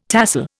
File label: "tahsil", Indian